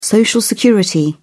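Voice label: "social security", British English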